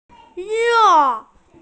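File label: "re4", Mandarin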